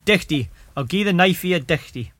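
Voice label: dichtie: ah'll gie the knifie a dichtie, Cromarty Fishertoun dialect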